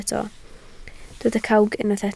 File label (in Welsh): "cawg", Welsh